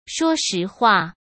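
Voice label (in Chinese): "说实话", Mandarin Chinese; chinese-flashcards; hsk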